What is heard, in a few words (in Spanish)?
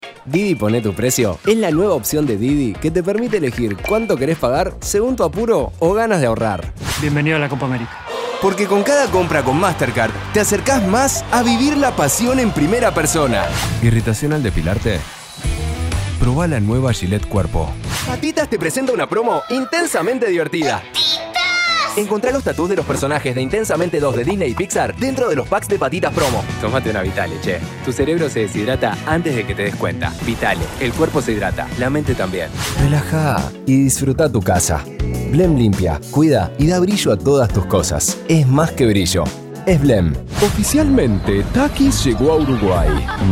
Comercial ARG URU; Reel